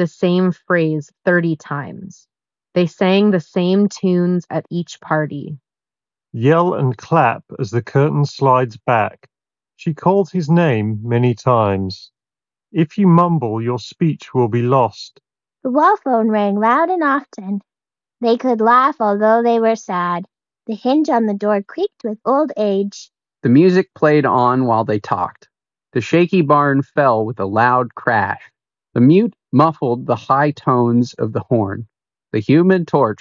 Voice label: Ideal microphone sample; Skullcandy Dime